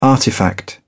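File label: "artifact", English, British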